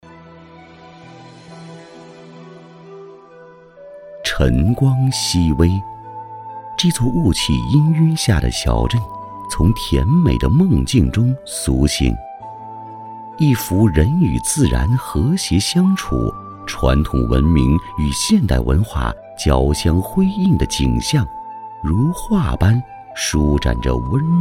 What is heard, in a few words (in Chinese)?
男 城市风光; 旌阳